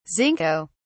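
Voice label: "zinco", British English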